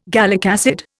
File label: "gallic acid", Indian